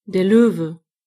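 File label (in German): "lion", German; gcompris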